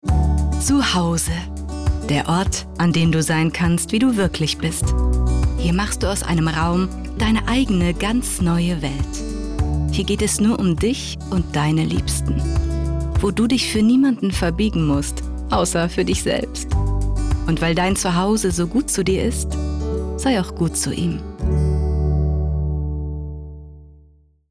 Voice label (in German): Werbung Moebel; voice